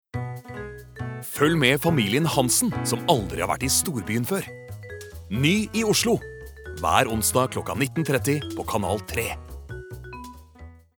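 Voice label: Norwegian promo, male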